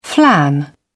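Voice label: "flan", British English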